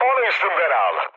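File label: aircraft damaged, mood low, Turkish; War Thunder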